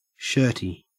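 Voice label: "shirty", Australian English; pronunciations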